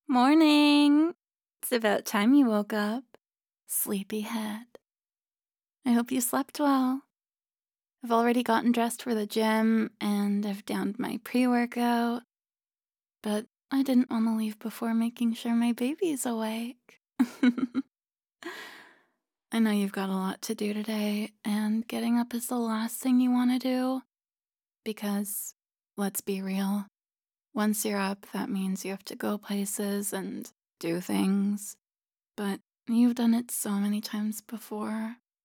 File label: tomboy alarm